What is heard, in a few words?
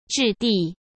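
"质地", Mandarin Chinese; hsk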